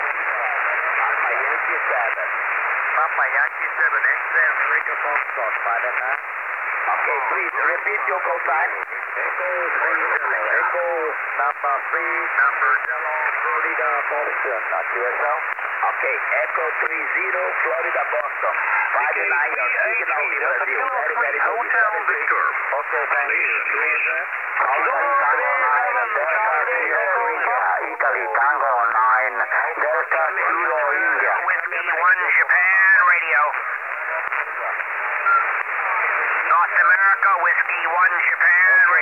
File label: 21MHz SSB; E30FB Eritrea